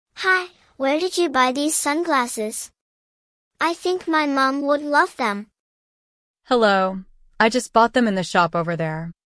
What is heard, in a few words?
ssml example two voices en